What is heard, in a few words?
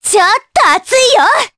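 Vox Skill, Japanese; kingsraid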